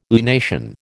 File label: "lunation", American